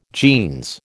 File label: "jeans", American